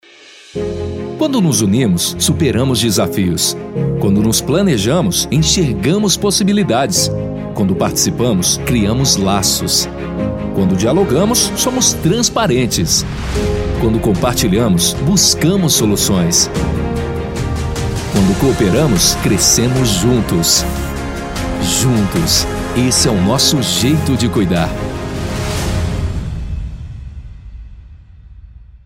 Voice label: portuguese brazilian commercial

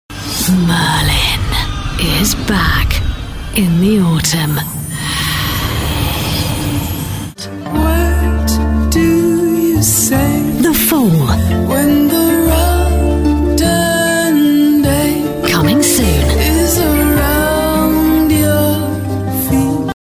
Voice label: english british movie trailers

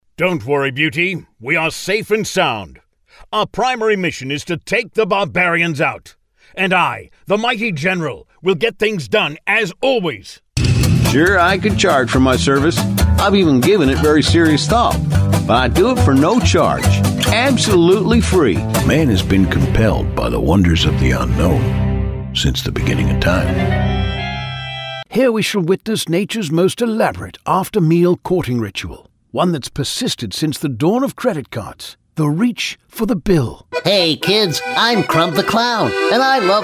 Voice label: english canadian animation

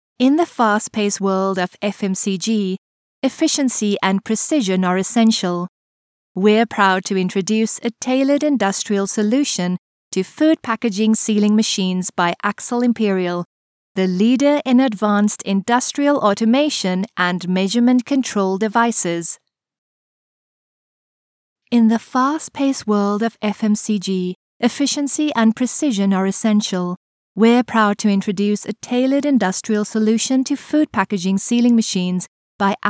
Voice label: english south african narration

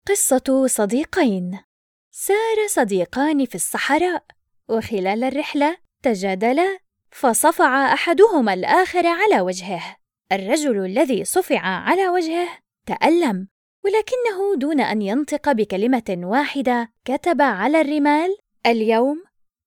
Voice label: Arabic audiobooks

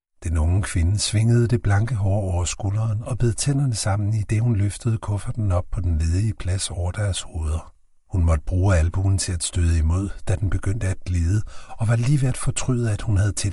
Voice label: danish audiobooks

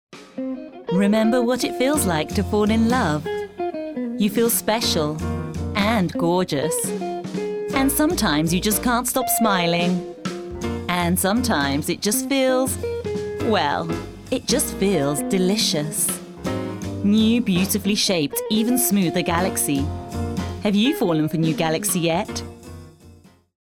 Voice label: english british promos

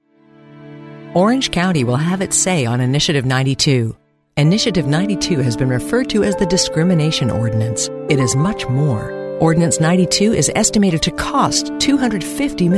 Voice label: english american political ads

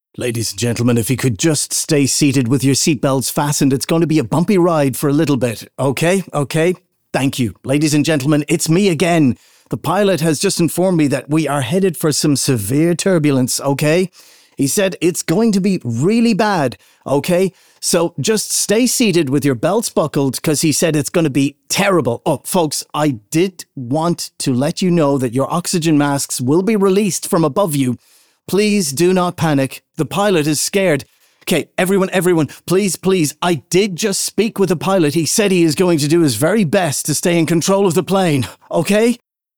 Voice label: english irish tv shows, irish voice actors

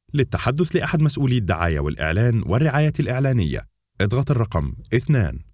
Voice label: arabic ivr

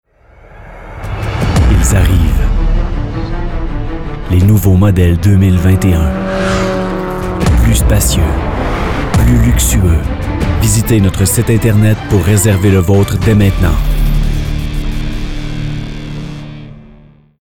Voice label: french canadian commercial

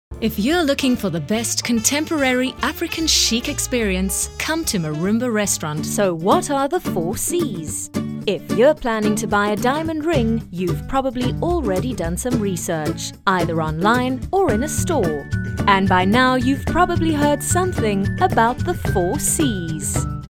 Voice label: english south african commercial; Alemão Inglês (sul-africano); África do Sul; dubladora profissional

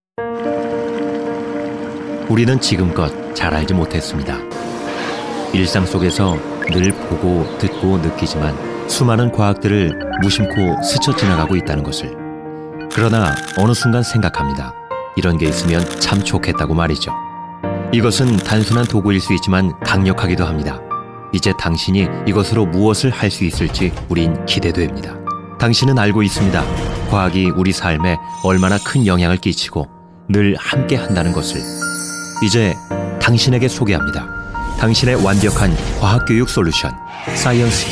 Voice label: Korean commercial

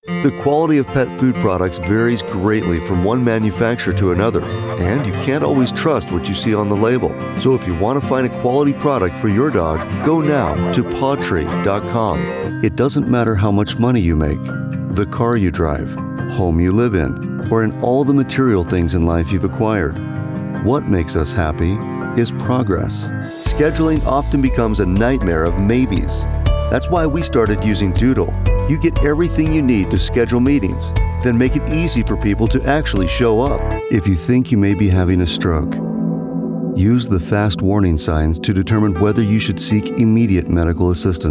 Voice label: english american commercial

